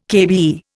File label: "KBE", Indian